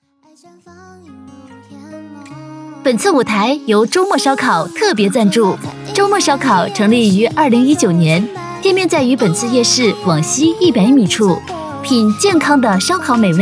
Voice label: 女 促销 烧烤

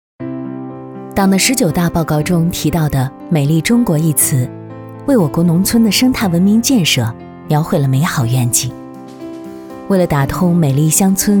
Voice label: 女 娓娓道来乡村扶贫

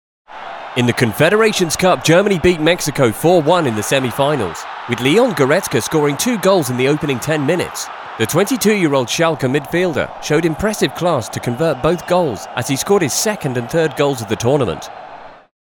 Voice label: 轻快 球赛解说

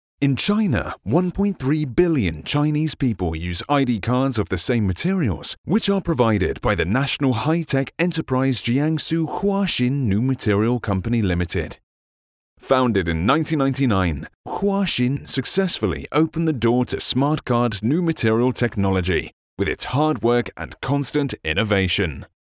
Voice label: 宣传文稿; 华信

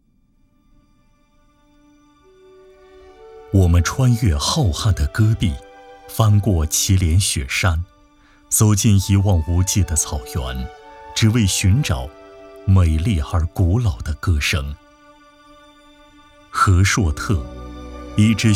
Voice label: 男 纪录; 肃北民歌

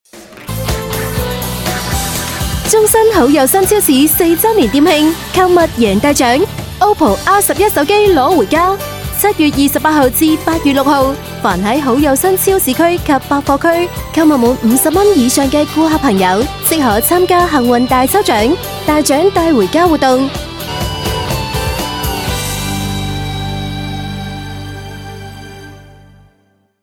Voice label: 粤女 商场提示; 中新好又新

